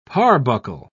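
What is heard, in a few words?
"parbuckle", British English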